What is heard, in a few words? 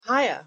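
"hiya", English; pronunciation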